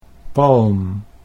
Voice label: "palm", Dutch